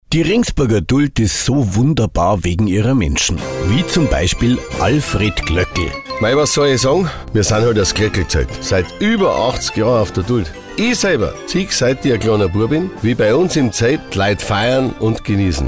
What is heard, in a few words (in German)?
radiospot